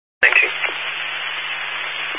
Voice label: Highway Patrol, Phoenix Metro West Area, scanner